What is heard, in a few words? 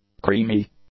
"creamy", English; adjectives